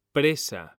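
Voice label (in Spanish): "PRESA", Spanish; mekanta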